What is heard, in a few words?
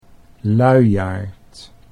"luiaard", Dutch